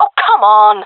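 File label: taking fire friendly, female voice; player vo; Counter Strike Model Pack; CS:GO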